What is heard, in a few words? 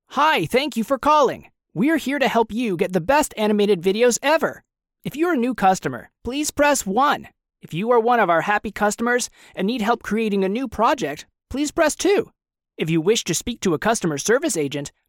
phone system